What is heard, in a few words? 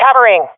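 covering friend, swat female; Counter Strike Model Pack; CS:GO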